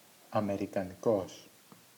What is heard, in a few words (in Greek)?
αμερικανικός, El